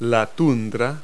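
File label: "tundra", English word; Multi-Lingual Talking Picture Dictionary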